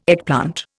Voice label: "eggplant", Indian

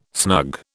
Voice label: "snug", British

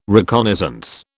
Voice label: "reconnaissance", British

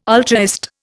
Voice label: "ultraist", Indian

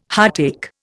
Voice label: "heartache", Indian

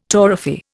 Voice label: "torrefy", Indian

